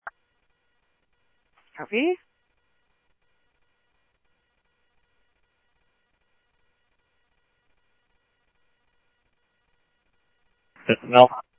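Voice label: police radio scanner traffic, Mesa Simulcast; MESA PD Superstn; MesaPoliceDep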